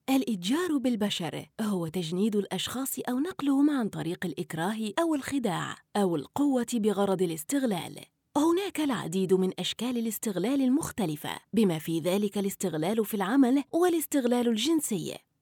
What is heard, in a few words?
female arabic voice over narrative